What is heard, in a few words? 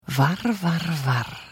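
Idle, Khajiit female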